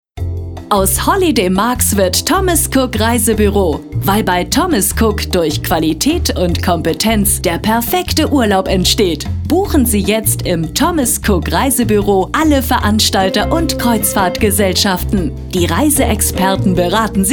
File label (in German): Radio POS Sprecher